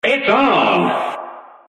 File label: narrator its on; cuphead